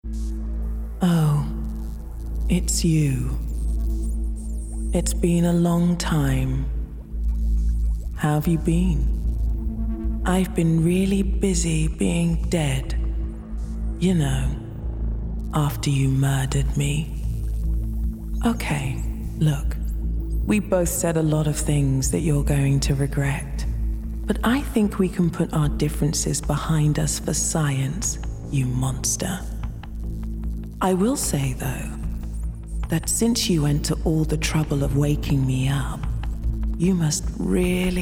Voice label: animation; Portal

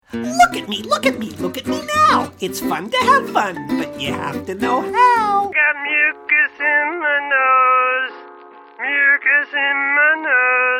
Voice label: animation

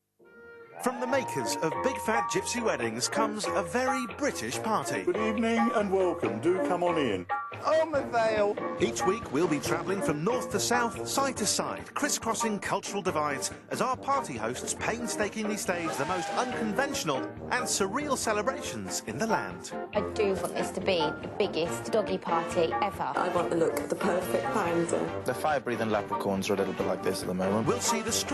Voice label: Promo; AVBP Launch

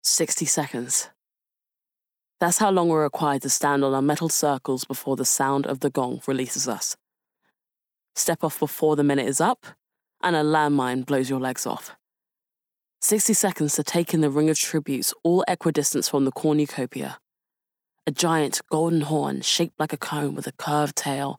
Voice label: Bookread

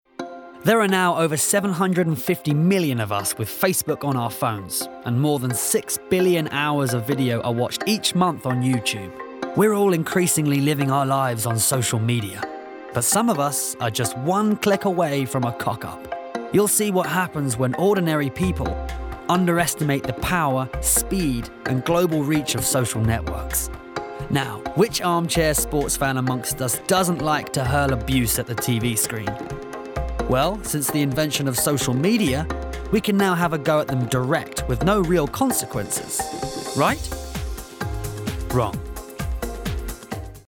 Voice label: Docu; C4

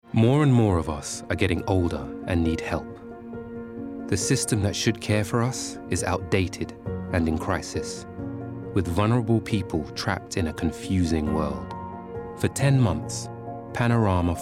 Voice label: Narration; Panorama

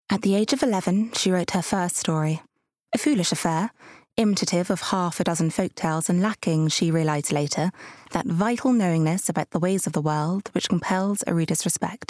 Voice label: Audio Book; Atonement

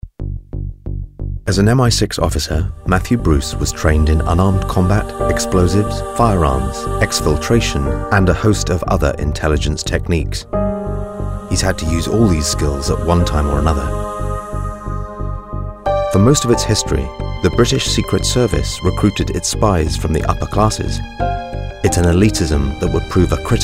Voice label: Narrative

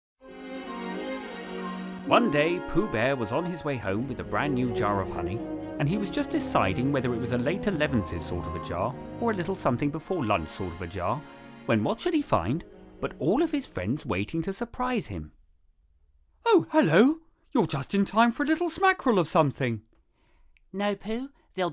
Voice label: Voiceover

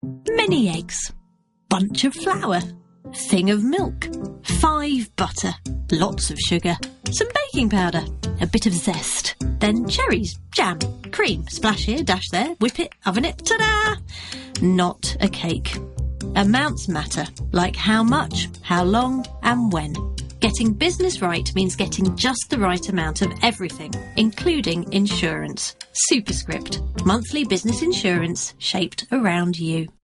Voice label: RADIO COMMERCIAL

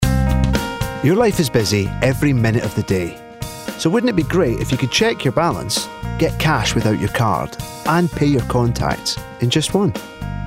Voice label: commercial; RBS

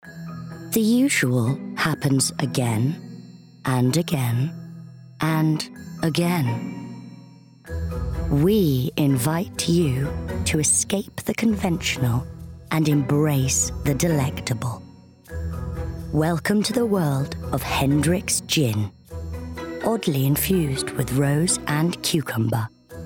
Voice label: commercial; Hendricks Gin

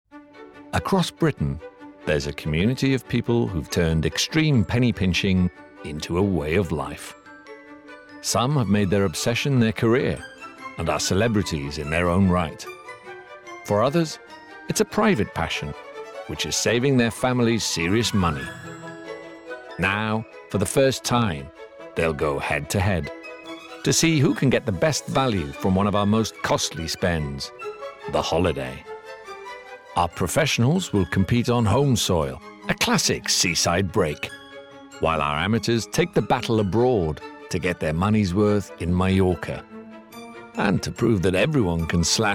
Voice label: Documentary

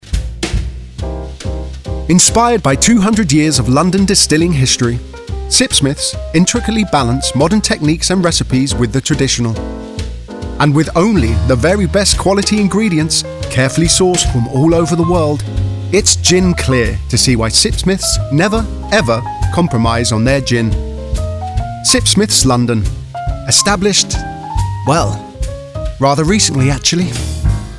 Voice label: Commercial; Sipsmiths

